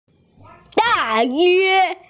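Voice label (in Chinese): "da4yue1", Mandarin Chinese, female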